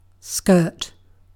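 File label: "skirt", British English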